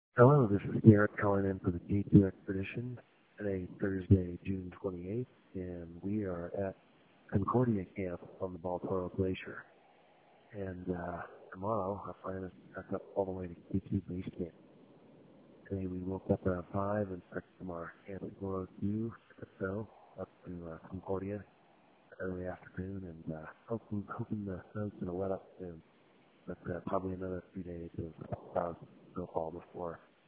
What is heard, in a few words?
voicemail